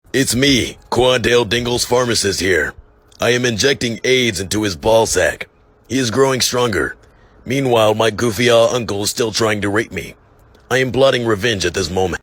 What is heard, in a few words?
goofy ahh pharmacist; GOOFY AHH PHARMACIST Quandale Ding; quandale dingle